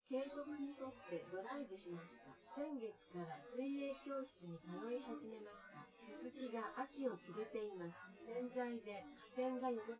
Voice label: female synth conv; SiSEC10; underdetermined

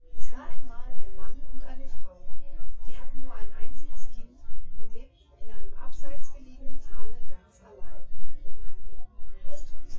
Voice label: female synth conv 1m; SASSEC07

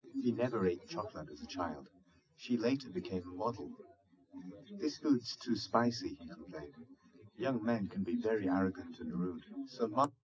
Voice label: male; SiSEC11; underdetermined

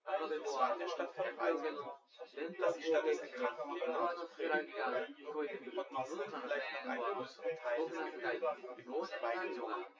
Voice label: male; SiSEC08; SiSEC underdetermined

